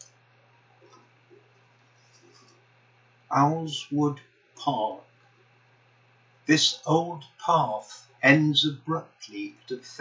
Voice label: reading; OWLSWOOD PARK